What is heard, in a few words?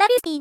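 "wspin", voice; Techmino; rin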